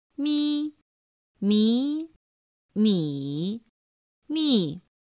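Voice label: "mī mí mǐ mì", Chinese; pinyin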